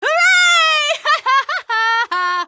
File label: cheer, citizen voice